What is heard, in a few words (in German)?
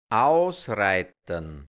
"ausreitern", hianzisch Mundart Burgenland; aussieben